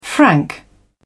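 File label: "frank", English, British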